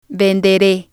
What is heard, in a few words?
"vendere", Spanish